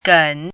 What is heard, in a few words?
"gen3", pinyin